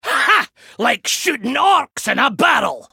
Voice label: male dwarf; trigger deadlyarsenal